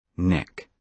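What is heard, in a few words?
"neck", British English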